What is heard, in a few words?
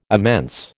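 "immense", American English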